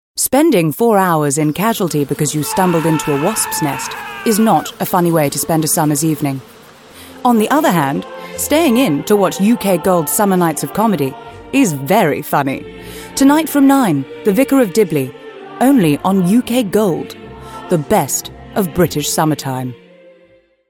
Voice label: tv trailer uk gold; demo